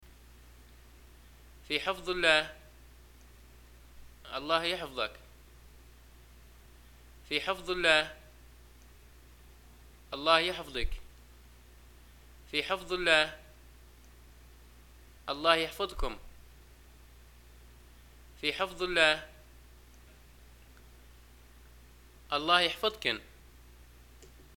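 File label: Libyan greeting farewell, arabic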